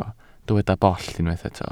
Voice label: "bollt", Welsh

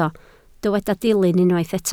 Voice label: "dilyn" Welsh

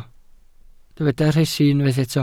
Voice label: "rhesi", Welsh

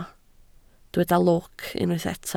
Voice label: "lwc", Welsh

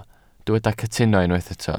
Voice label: "cytuno", Welsh

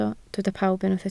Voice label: "pawb", Welsh

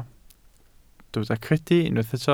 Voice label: "credu" Welsh

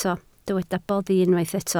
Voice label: "boddi", Welsh

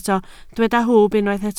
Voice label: "hwb", Welsh

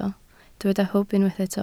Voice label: "hwb", Welsh

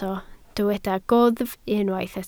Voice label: "gwddf", Welsh

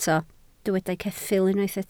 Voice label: "ceffyl" Welsh

